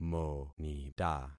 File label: "monita", concat syllables; Affixing experiment